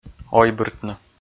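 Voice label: "ойбыртны", Komi, male; kpv audio dict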